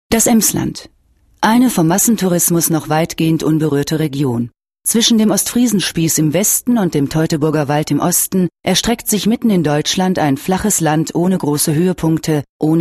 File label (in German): sachlich